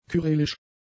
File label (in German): "kyrillisch", German; adjectives